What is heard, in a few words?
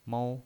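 "mou", pinyin voice, Mandarin Chinese, first tone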